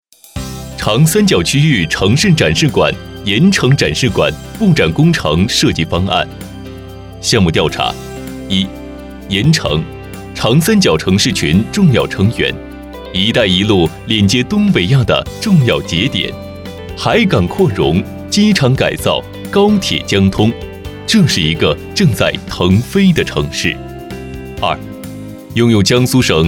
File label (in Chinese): 男, 专题解说; 长三角区域城市展示馆